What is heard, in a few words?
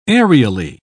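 "aerially", British English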